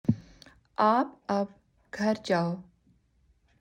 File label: Urdu